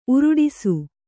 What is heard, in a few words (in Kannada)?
"ಉರುಡಿಸು", Kannada